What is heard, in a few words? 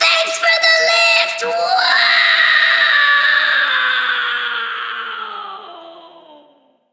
Troll VO Fall Quip